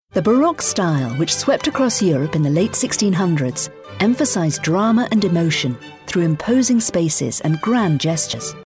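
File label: British English, Documentary; GoLocalise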